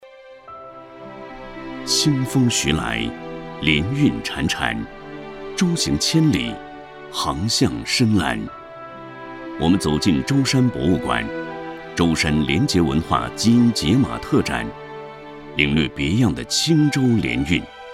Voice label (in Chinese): 男, 宣传片; 清舟廉韵